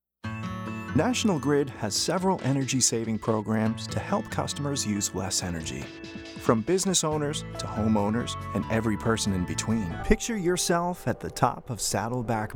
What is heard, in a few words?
Lightning COMMERCIAL, voiceovers